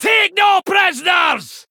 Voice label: Male Dwarf Attack